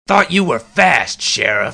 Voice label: fast; wanted